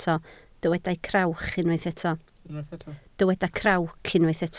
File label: "crawc", Welsh